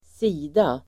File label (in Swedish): "sida", Swedish